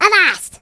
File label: Bugger, voices Pirate; hedgewars